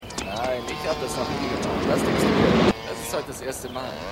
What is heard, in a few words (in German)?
Mann; AusgerechnetAlaska